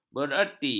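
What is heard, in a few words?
"bererti", Malay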